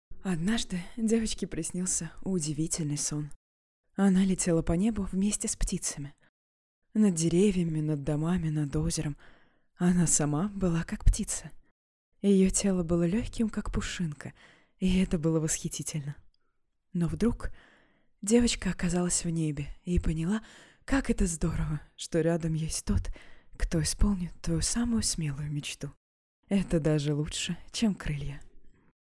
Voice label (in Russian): lang russkij